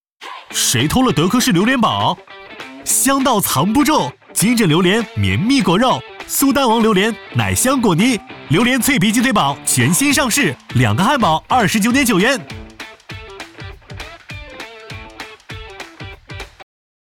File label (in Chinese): 男 年轻活力 广告; 德克士